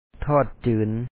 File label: "ທອດຈືນ", Lao; thO2Ot cyyn fried